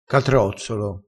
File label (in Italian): "catrozzolo", crespinese